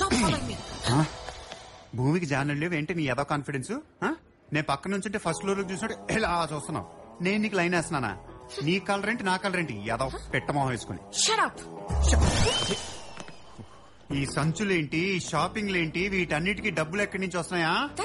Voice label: Dialogue Ringtone; Dookudu